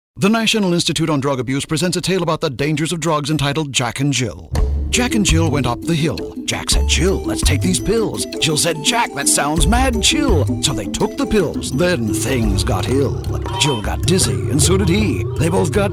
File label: male announce